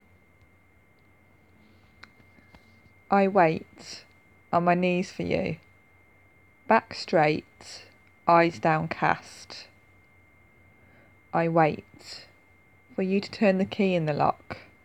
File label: F4M Erotic poetry Submissive